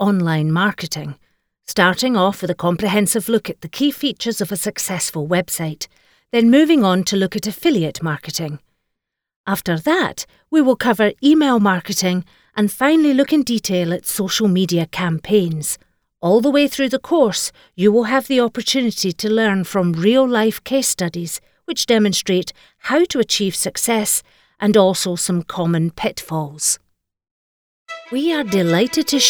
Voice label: corporate narration scottish